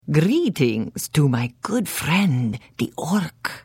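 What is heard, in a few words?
Hello, Khajiit female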